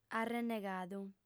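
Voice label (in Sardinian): "arrennegadu", female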